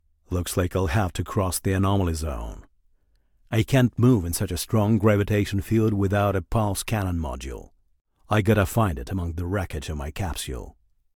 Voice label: Voice Dialog; we are the dwarves gamerip 2016